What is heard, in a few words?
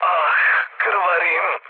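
Serbian damaged pilot mood low, speech; War Thunder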